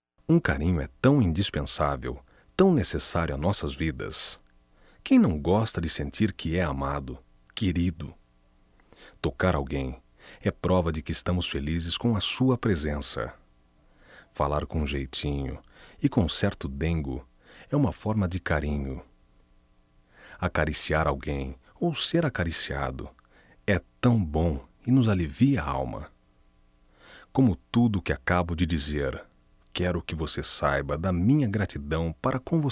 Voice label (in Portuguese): Carinhos Masculino; Telemensagens 2017; MOMENTOS DE AMOR